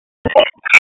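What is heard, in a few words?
Raleigh Police North District Tactical, p25; wake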